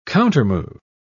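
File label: "countermove", British English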